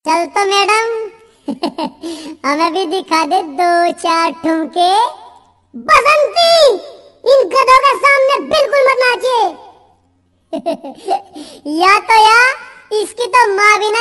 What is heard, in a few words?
funny dialogue ringtone; sholay style